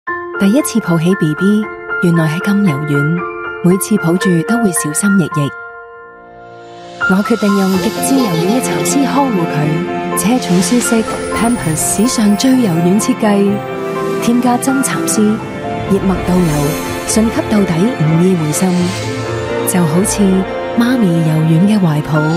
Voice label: Cantonese Voice Sample; Pampers